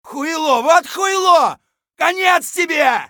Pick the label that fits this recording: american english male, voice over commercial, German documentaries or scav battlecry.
scav battlecry